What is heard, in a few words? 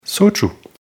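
"Soju", German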